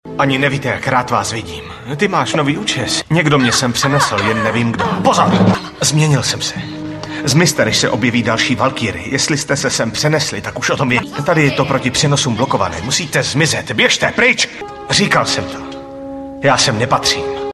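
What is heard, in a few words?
male, Czech, drama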